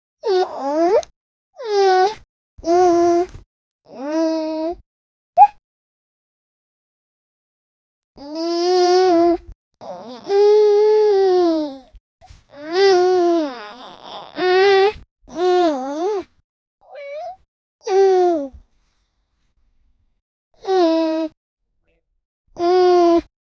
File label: young baby say I love, affection